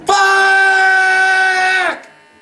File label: "fuck" long, expletives